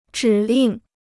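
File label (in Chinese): "指令" Chinese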